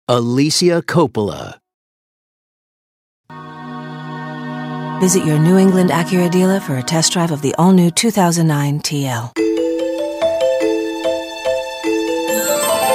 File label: commercial; Innovative LA